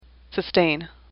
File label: "sustain", English; AWL